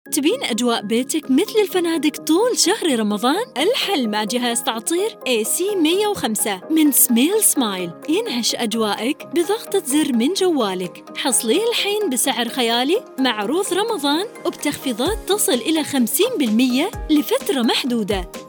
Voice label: voiceover